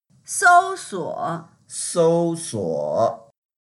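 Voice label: "sou1suo3", Mandarin Chinese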